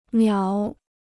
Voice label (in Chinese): "秒", Chinese